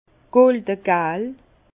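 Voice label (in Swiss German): "goldegal", Alsace Bas Rhin; jaune or; vin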